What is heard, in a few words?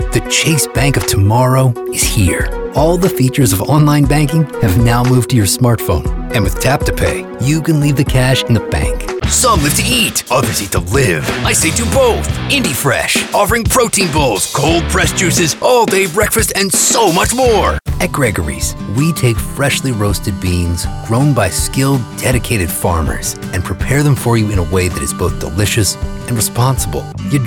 Commercials